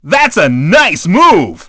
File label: dj jump nice; DJ VOICE; Nintendo; July 2020 Gigaleak